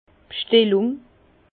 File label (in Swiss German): "schtellung", Bas Rhin; vin; commande